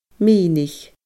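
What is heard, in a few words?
"minich fada", Scottish Gaelic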